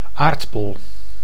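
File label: "aardbol", Dutch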